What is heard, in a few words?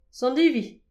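"sant ivi", Breton; petit precis de prononciation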